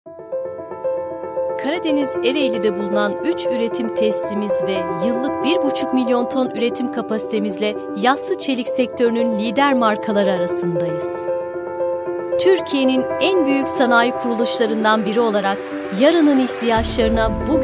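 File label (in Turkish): kurumsal tanıtım müzikli; tatcelik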